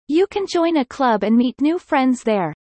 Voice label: fast; studying abroad